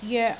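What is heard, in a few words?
"joek3", Cantonese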